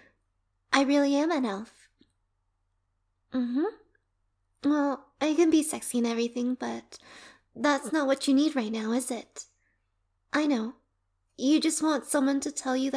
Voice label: Christmas Elf [SFW][short][elf][cute][cuddles][spoon me], Erotic audio